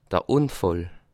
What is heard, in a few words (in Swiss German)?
"unfall", alsace